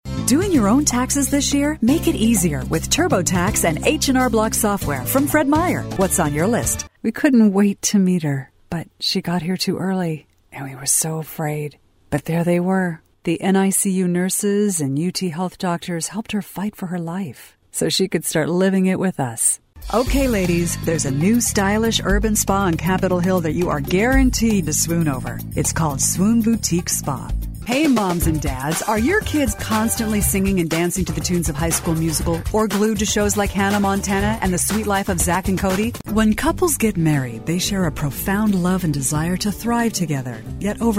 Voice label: commercial